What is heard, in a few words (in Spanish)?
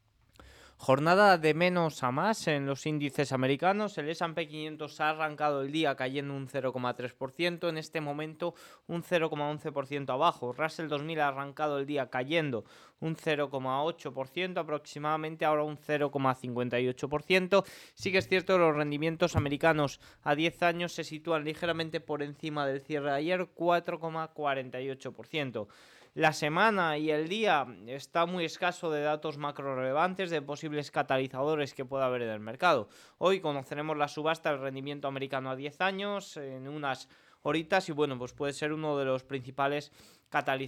radio; noticias bolsa